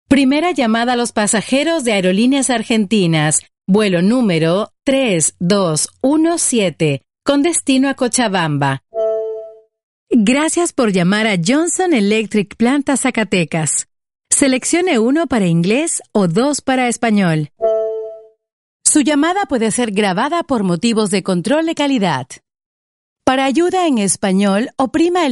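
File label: Spanish Latin American, female, IVR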